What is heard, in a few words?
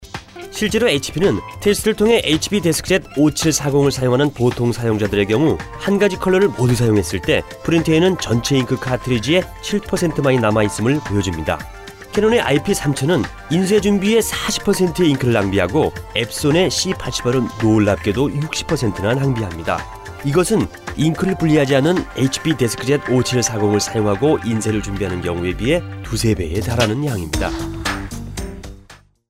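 Korean Male voice over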